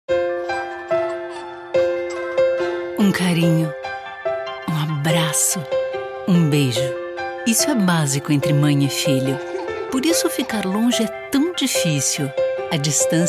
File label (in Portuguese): Publi mae acolhedora emocional; Hering